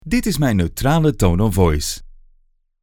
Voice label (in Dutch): Tone of Voice Neutraal